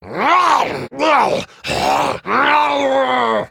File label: Male Murloc Bark